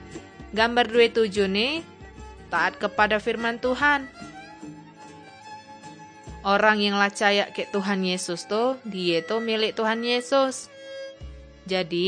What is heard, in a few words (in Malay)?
kabar baik good news malay bangka